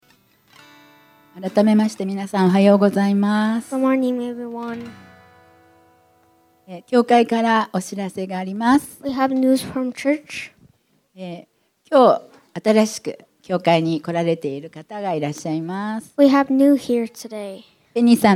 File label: annoucement; sunday message 2023